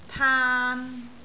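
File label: "taan3", Cantonese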